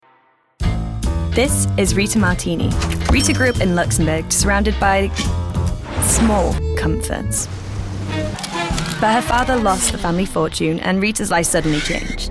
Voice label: female english tv comercial; ideasonora